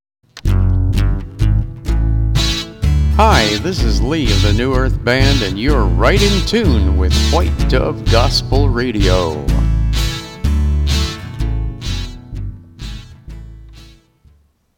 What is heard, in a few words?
PSA in tune; WhiteDove